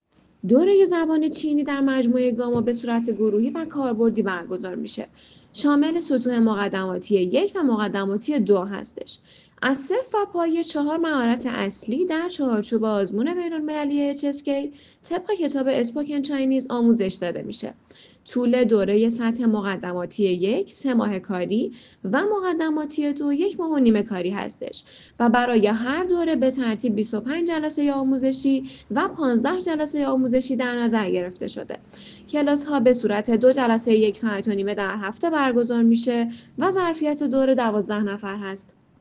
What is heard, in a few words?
Chinese; GAMA; language school tehran